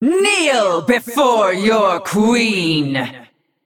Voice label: Female Naga Play; Queen Azshara

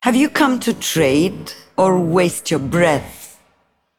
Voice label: Female Broker

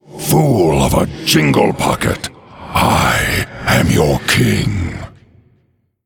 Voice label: Male Undead Emote Bartender Greetings

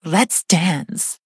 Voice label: Female Satyr Attack

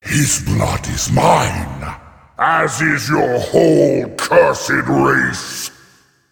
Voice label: Male Demon; Mannoroth; Thrall

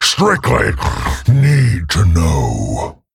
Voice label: Male Worgen Play

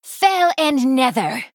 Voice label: Female Night Elf Play

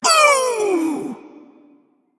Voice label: Female Attendant Death

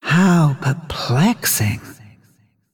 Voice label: Female Dragon Thinking

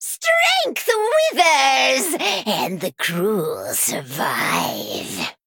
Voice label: Female Harpy

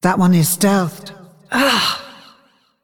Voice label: Female Dragon error stealth

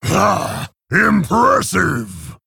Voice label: Male Orc Well Played

